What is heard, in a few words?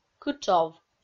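"кытшов", Komi-Zyrian, female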